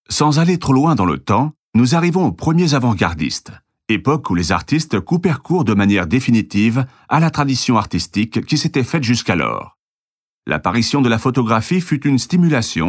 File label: audioguia french